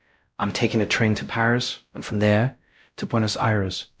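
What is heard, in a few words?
Voice Acting Spanish, male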